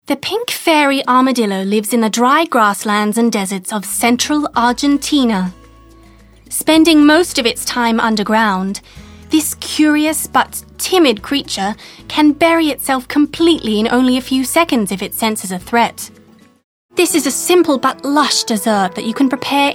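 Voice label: British, narration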